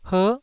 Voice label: "her9", taiwanese

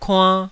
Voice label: "kuann2", Taiwanese syllable

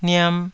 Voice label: "niam2", taiwanese

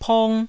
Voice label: "pong1", taiwanese

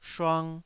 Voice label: "shuang2", taiwanese Syllables

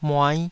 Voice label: "muai5", taiwanese syllables

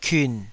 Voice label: "kiun3", taiwanese syllable

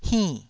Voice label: "hinn4", taiwanese syllable

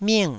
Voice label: "ming4", Taiwanese

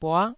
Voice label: "bua9", taiwanese Syllables

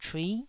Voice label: "chui9", Taiwanese

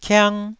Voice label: "kiang1", taiwanese syllable

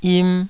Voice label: "yim2", taiwanese

